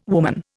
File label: "woman", Indian